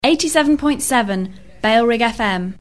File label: female, no bed; bfm RSL 1994; jingles; 87.7 bailrigg fm